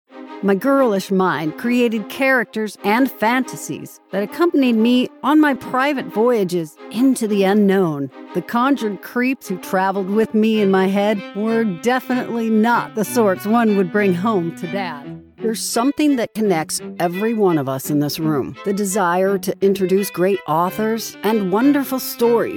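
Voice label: audiobook mono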